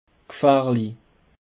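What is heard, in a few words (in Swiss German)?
"gfaehrli", Bas Rhin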